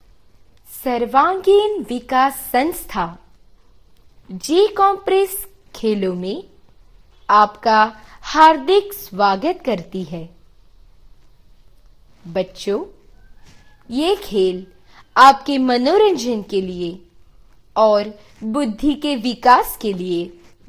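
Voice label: welcome, Brazilian Portuguese; gcompris-data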